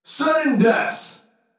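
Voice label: sudden death, Video Game Announcer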